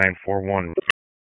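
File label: Police Spec Ops Tac, radio; Raleigh; Tac 4; wake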